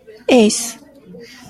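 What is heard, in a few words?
"ace", Japanese, female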